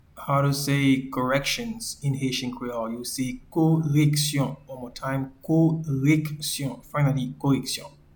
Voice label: "Koreksyon", Haitian Creole; Corrections